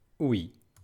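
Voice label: "oui", French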